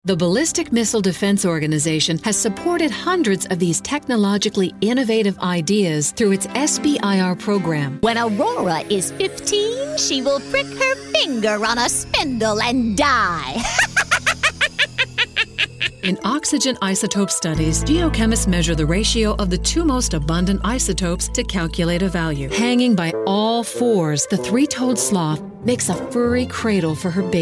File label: Narrations